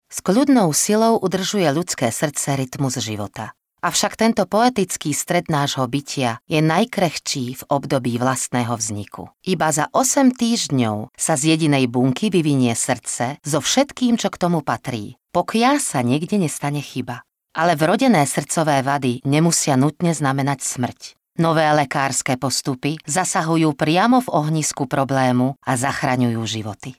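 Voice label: Female Slovak documentary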